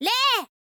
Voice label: "rei", girl